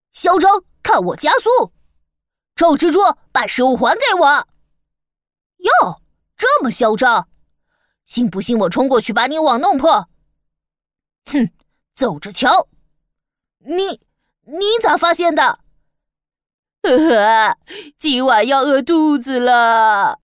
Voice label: 女 男童 年纪偏大

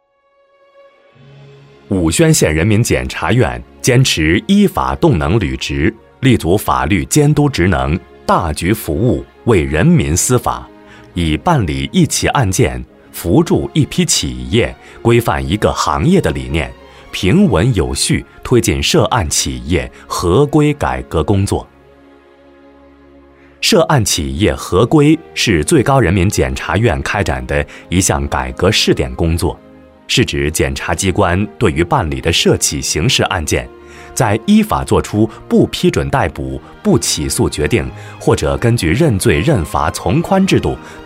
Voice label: 男 中文 宣传片 企业合规

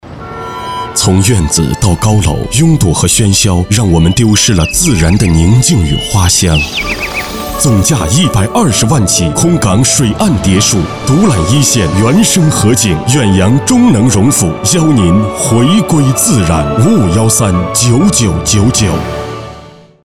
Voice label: 男 电台广告; 远洋中能荣府

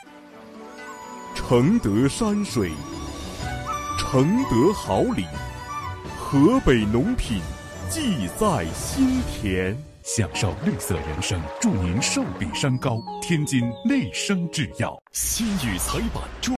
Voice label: 男 广告; 2020年新闻联播前广告

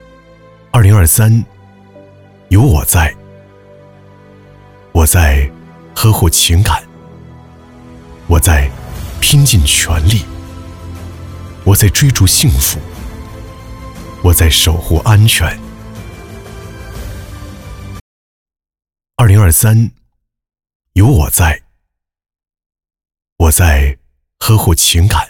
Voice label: 男 专题 大气讲述; 中国电信

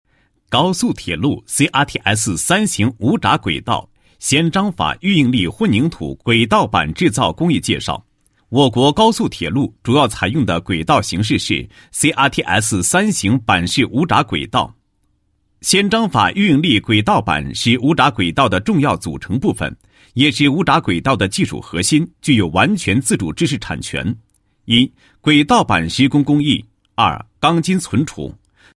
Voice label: 男, 工程项目; CRTSⅢ型轨道板工艺介绍

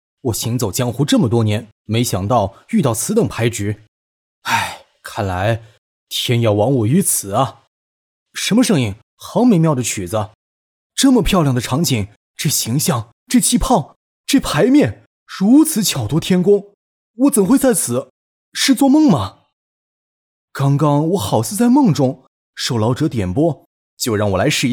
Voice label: 男 角色 少侠

